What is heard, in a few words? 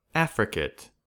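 "affricate", US English; pronunciations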